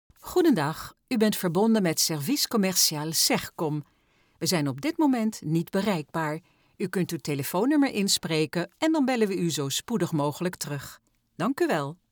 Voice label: IVR

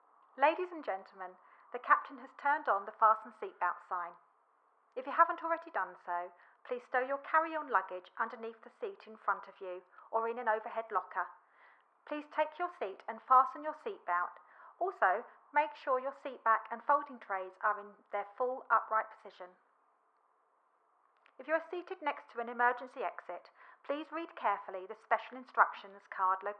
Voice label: boarding announcement

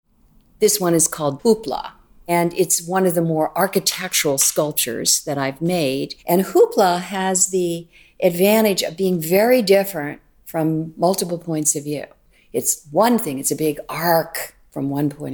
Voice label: AUDIOGUIDE ENG; Hoopla

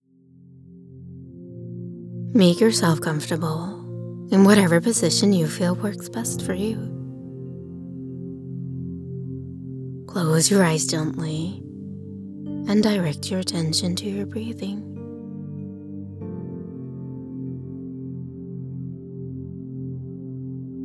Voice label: Relaxation Exercise Sleep Version

